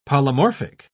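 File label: "polymorphic", British English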